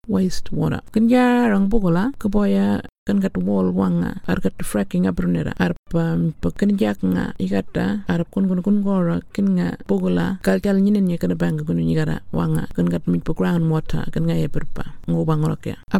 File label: Burarra; WASTE WATER